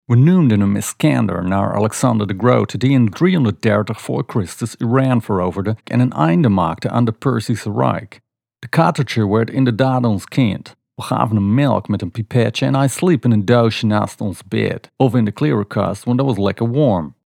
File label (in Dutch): NL Amerikaans